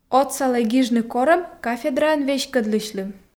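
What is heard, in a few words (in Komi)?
отсалӧй гижны корӧм кафедраӧн веськӧдлысьлы, Komi, female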